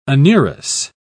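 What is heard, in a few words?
"anurous", British English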